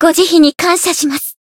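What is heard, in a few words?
healed, Japanese; veina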